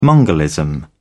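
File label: "mongolism", English, British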